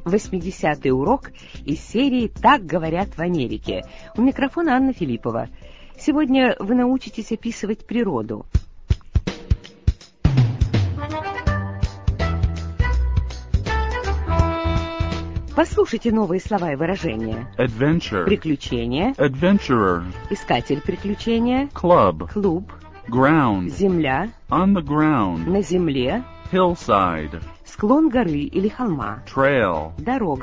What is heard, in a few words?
russian english